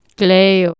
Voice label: "gleo", Anglo Saxon

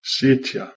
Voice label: "sitja", Proto Germanic

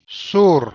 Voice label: "sur", Anglo Saxon

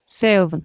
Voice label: "seofon", Anglo Saxon

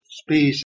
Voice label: "spes", Latin

